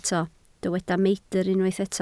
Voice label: "meidr", Welsh